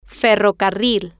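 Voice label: "ferrocarril", Spanish, female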